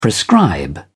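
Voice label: "prescribe", British English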